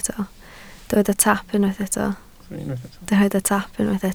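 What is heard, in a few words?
"taap" Welsh, spoken word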